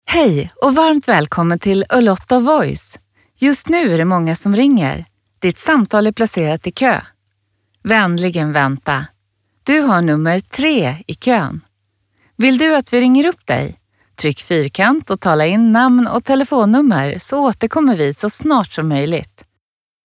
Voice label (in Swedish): Interaktivt telefonsvar IVR